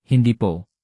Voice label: "hindi po", Tagalog; tagalog phrases